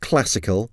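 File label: "classical", English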